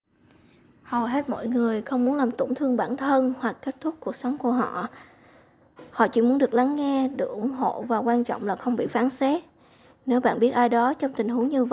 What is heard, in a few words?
Vietnamese; mental health services